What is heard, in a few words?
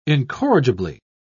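"incorrigibly", English, British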